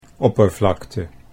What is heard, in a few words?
"oppervlakte", Dutch